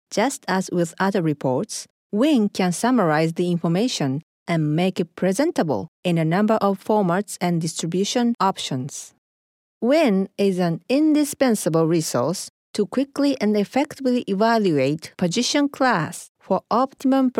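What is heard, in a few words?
Japanese English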